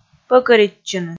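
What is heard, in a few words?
"пӧкӧритчыны", Komi, female; kpv audio dict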